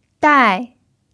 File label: "dai4", chinese